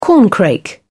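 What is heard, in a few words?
"corncrake", British English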